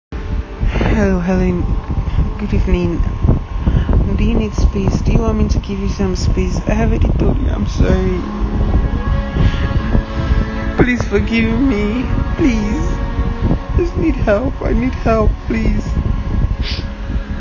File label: audio Message